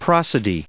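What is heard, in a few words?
"prosody", us English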